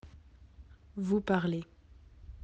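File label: "parler", French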